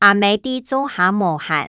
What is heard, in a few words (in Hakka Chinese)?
阿姆在灶下無閒, 客語